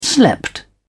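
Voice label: "slept", British English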